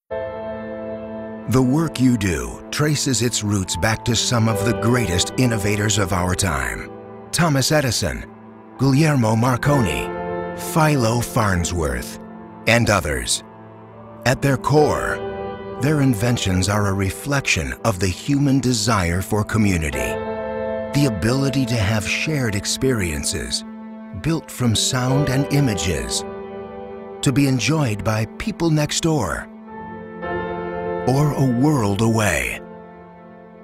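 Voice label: Documentary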